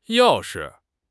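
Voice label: "yao4 shi5", Mandarin Chinese; HSK; HSK4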